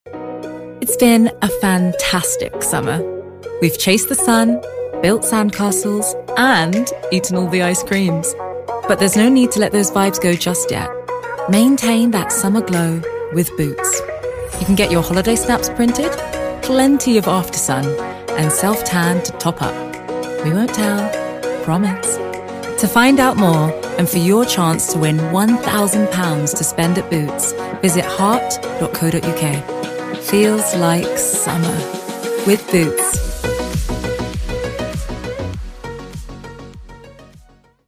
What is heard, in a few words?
Commercials, 20s-30s. Female. London. Studio.